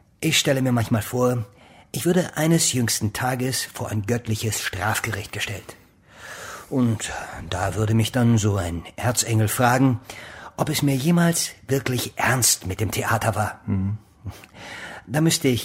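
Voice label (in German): Hörspiel lakonisch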